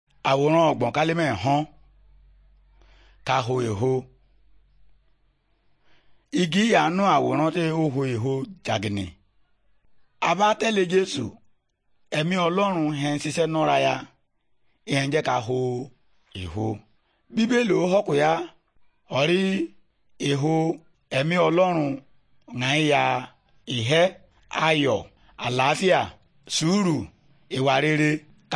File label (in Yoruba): good news yoruba, bible